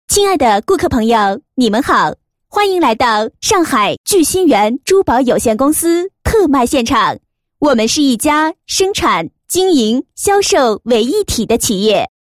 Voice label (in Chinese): 女 促销广告, 珠宝有限公司特卖场促销; 聚鑫缘